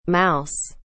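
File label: "mouse", English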